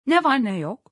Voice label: whats up in turkish friendly; greetings in turkish